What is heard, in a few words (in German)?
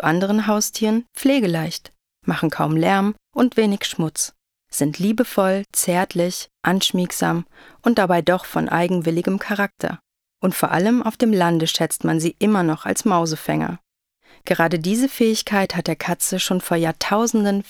erklaerfilm, deutsch frauen; sprecher